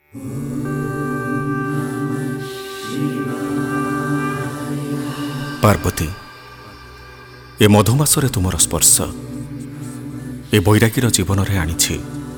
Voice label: MALE DRAMA

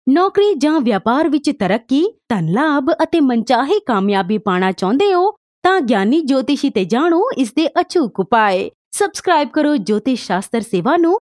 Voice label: Commercial

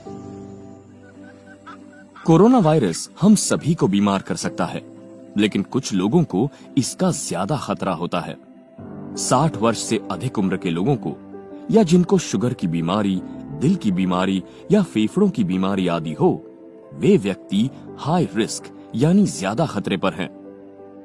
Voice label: Narration

